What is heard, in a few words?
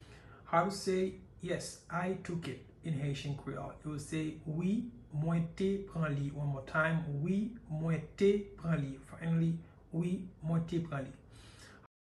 Yes I took it in Haitian Creole Wi mwen te pran li pronunciation by a Haitian teacher